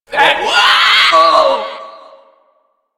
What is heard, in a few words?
Female Grineer Taunt; Taunts